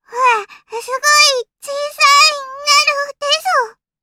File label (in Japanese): System Voice 少女 最小化; France Shojo Une Fille Blanche